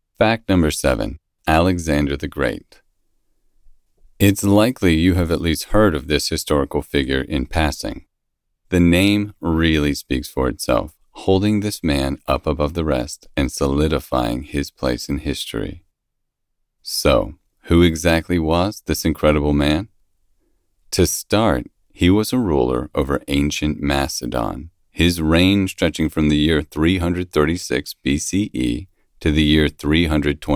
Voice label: Nonfiction Male 3rd person